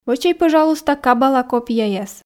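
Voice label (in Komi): "вӧчӧй пӧжалуйста кабала копияяс", Komi, female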